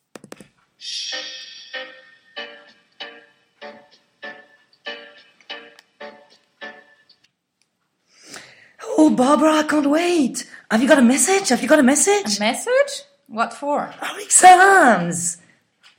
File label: phone call; act ii; scene 2; exams